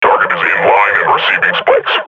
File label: firing, combine grunt; Half Life Model Pack